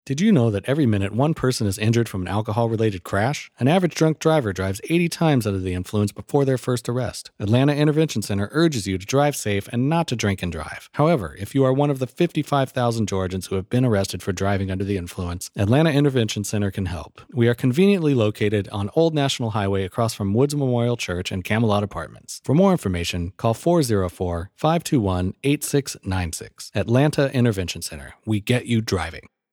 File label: Commercial; Atlanta Intervention Center